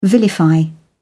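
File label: "vilify", English, British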